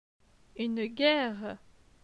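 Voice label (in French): "Une guerre", French; phonetique; les consonnes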